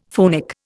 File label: "phonic", Indian; Dictionary